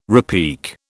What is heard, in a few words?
"repique", British